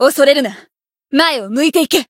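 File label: Voice chant, Japanese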